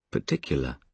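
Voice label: "particular" English, British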